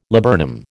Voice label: "laburnum", American

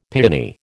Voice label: "piani", American

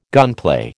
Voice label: "gunplay", American

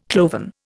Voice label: "cloven", Indian

